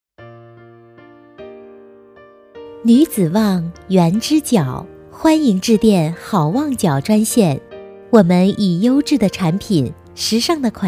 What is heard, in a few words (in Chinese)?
彩铃, 国语女声配音